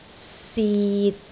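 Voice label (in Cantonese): "sit6", Cantonese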